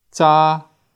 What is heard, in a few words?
"zaa1", Cantonese